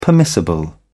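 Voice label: "permissible", British English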